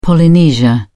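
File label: "polynesia", British English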